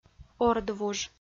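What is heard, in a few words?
"ордвуж", Komi-Zyrian, female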